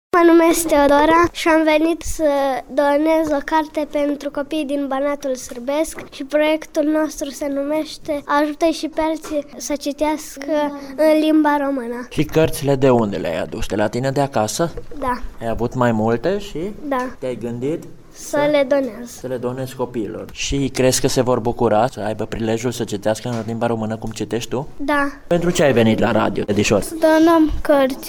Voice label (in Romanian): vox elevi; donatie